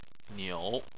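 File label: "niu" third tone, Chinese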